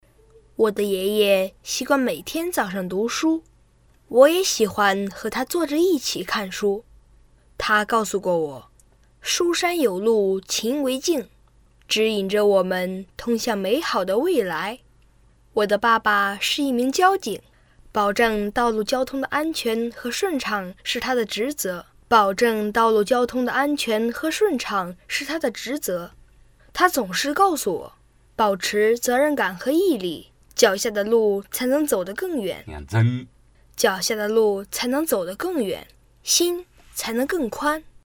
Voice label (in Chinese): 男 真童 男童 好家风样音